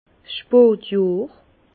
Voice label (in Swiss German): "spotjohr", Bas Rhin; vin; automne